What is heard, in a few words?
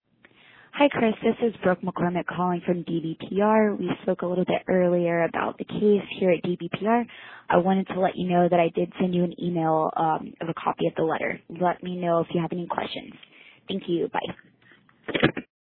voicemail; attorney general state of florida; sent letter